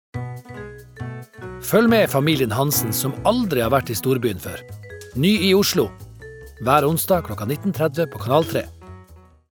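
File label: Norwegian promo nordnorsk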